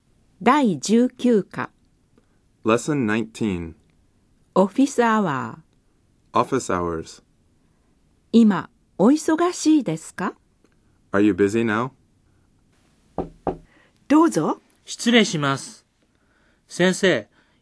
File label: Dialog